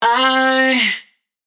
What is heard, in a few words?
adlib Aye Cm wet, Raw Vocals; Adlibs